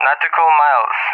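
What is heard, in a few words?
"Nautical miles", English, RUSSIA; DCS; Allied Flight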